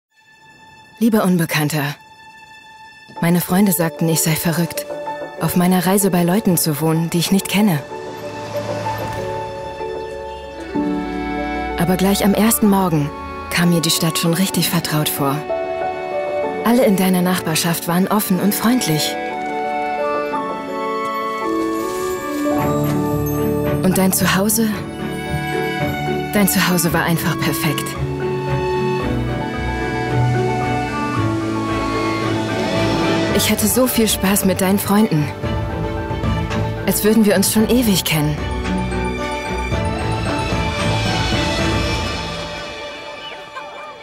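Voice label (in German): acting, Dynamisch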